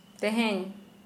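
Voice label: Santali female today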